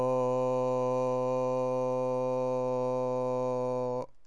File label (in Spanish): o real, LPC